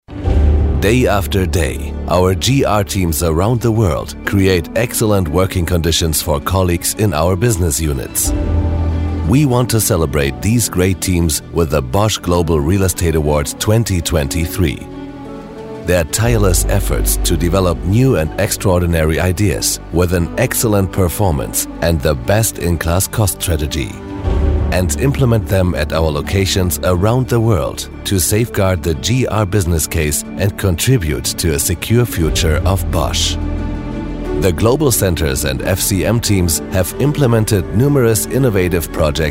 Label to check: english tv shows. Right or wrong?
Right